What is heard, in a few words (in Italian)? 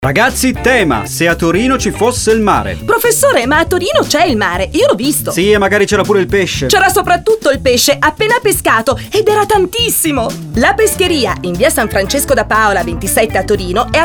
spot